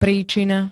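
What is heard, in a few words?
"príčina", Slovak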